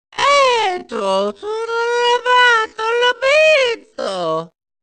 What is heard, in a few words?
slow gibberish